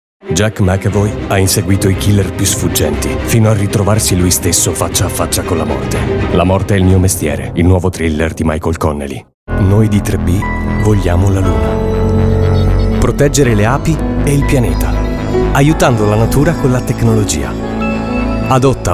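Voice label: Italian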